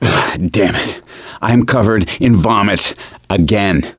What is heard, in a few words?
boomer reaction, voice; gambler